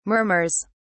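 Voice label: "murmurs", British English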